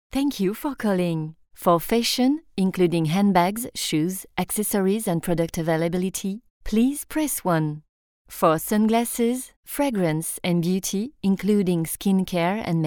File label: EN Raw Audio, Voix Off